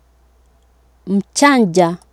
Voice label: "mjanja", Swahili